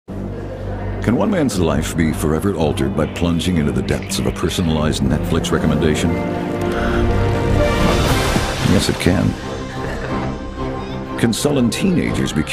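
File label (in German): US English Fremdtake Dynamisch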